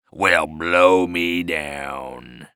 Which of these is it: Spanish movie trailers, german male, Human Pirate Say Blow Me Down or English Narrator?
Human Pirate Say Blow Me Down